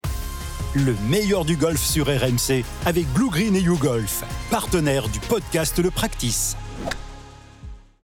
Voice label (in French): RADIO